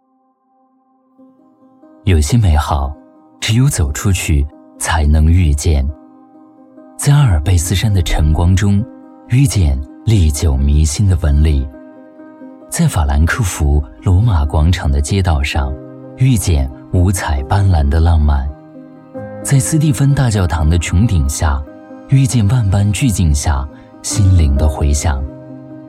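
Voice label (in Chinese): 质感旁白朗润企业形象片; 进口整装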